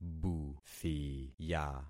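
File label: "buthiya", concatenated syllables; Affixing experiment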